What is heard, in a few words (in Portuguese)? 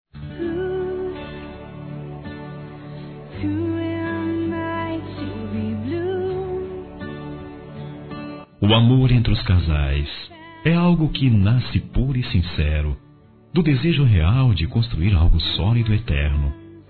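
Esposa Masculino; Aniversários; romantico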